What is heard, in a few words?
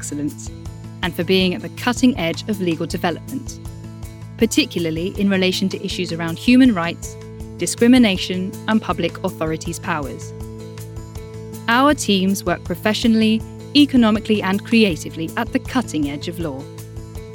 voiceover explainer law firm